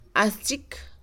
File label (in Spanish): cucaracha, Mixe, Mujer; Animales; INALI